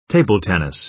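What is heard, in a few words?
"table tennis", American English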